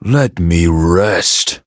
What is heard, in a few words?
victory en; cookie run